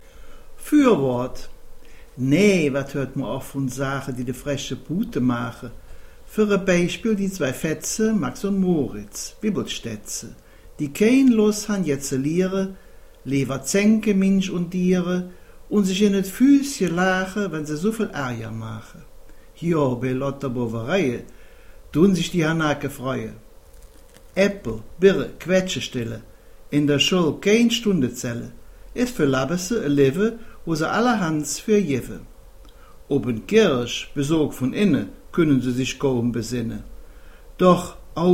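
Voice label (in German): Dialekte Köln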